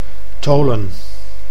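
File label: "Tholen", Dutch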